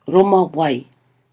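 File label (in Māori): "roma wai", Māori word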